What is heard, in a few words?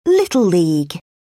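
"little league", British English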